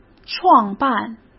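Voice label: "创办", Mandarin Chinese; chinese flashcards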